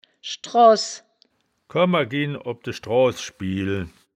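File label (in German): "schdroahs", Wittlicher Wörterbuch; das wittlicher woerterbuch; woerterbuch vertonung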